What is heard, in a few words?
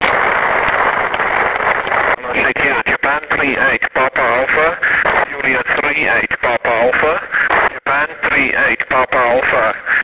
160m ssb